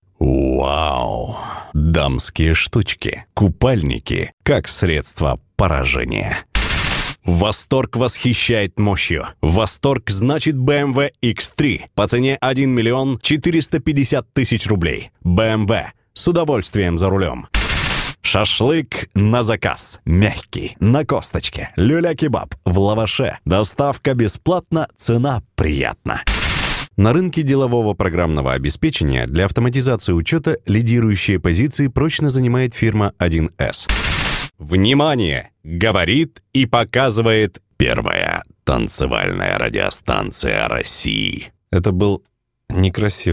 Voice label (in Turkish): Rusca Erkek, Seslendirme, Erkek Ses